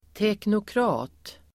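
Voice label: "teknokrat", Swedish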